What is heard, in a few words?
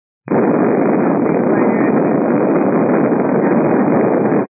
122 725MHz, scanner radio